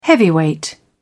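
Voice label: "heavyweight", British English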